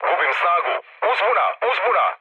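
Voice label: Serbian damaged engine mood low, speech; War Thunder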